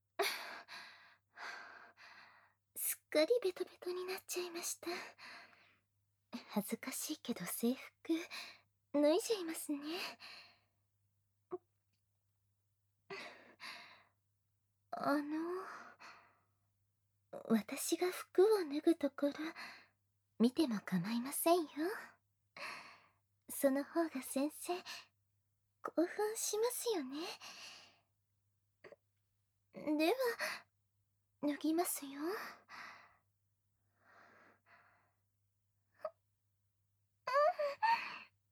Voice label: ASMR; ぬるぬるローションプレイ; ローションプレイ・耳舐め手こき・お掃除フェラ; SE無し

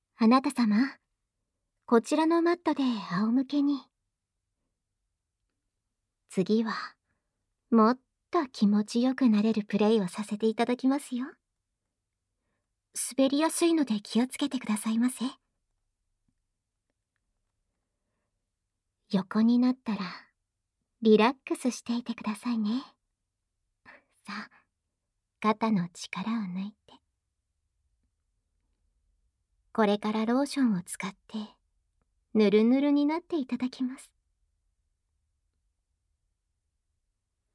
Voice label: ASMR; ローションマッサージと騎乗位中出しセックス; SE無し

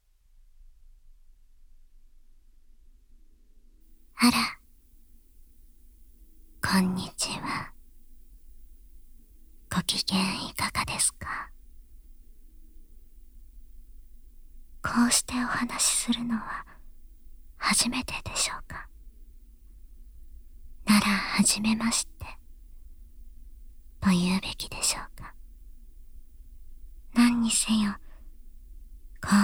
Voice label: ASMR; メスイキ絶頂トレーニング～催眠全身愛撫編～

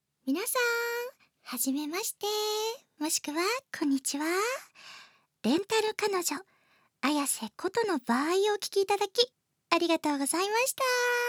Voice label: FreeTalk, ASMR

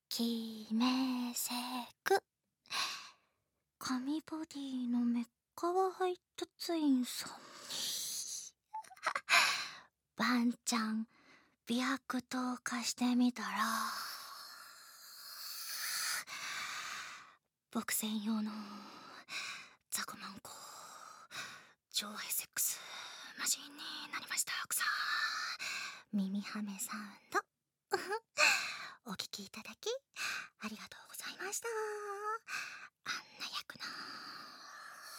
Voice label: FreeTalk, ASMR; 特典CVフリートーク

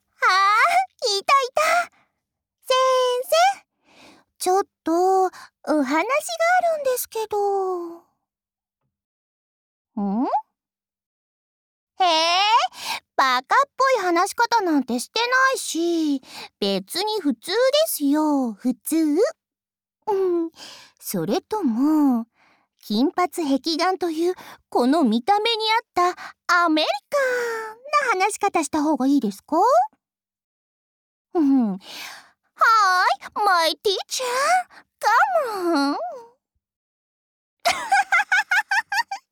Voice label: LOVE Whisper; おしえご

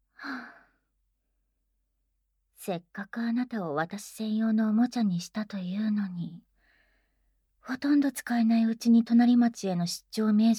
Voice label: ASMR; 馬車での移動中、クールなシスターによるS気たっぷり足コキ【足コキ・焦らしコキ・寸止め・ぶっかけ射精・お掃除フェラ】; SE無し

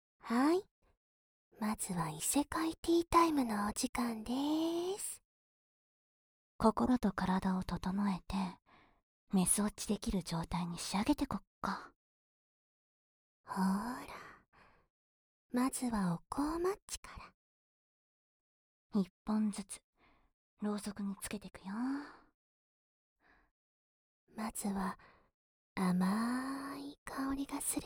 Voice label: ASMR; メス堕ち誘導ASMR：異世界ティータイム♪

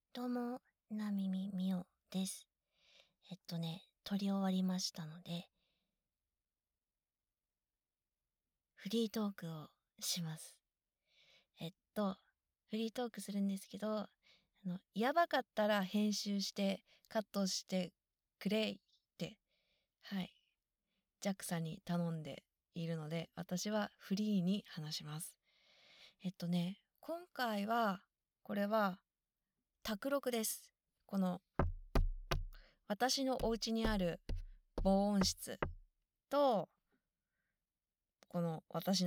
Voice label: FreeTalk, ASMR

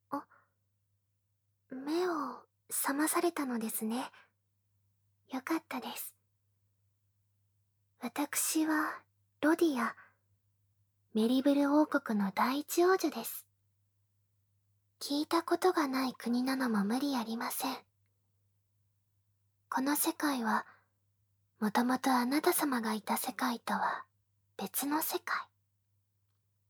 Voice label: ASMR; 姫との出会い・密着添い寝と耳舐め; SE無し

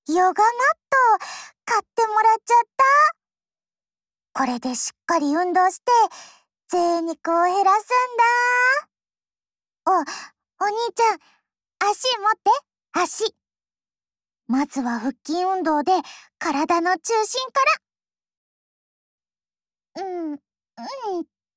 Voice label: Whisper; LOVEWhisper; いもうと

